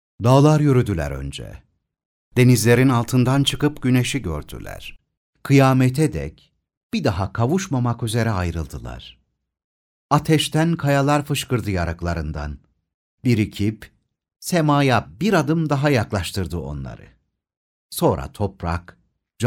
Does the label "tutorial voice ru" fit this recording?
No